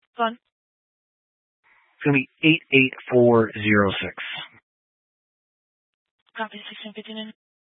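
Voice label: Dispatch, Scanner audio recording; Troop 3A Dispatch (W. Weld, N. Boulder)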